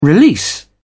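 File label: "release", British English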